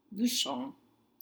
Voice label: "bucherons", French; woodcutters